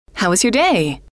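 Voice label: how was your day, female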